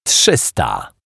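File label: "trzysta", Polish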